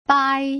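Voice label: "bai", Mandarin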